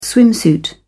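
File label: "swimsuit", British English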